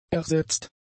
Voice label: "ersetzt", German; adjectives